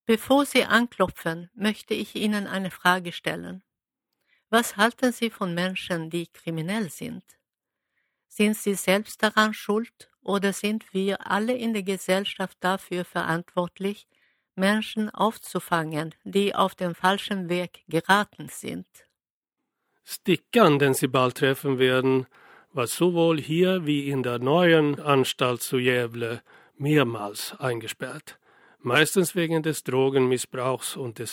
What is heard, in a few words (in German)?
cell, audioguider